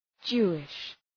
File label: "Jewish", Προφορά